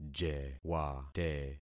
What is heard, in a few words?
"jewate", concat syllables; Affixing experiment